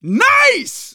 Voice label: reaction positive, manager, survivor, voice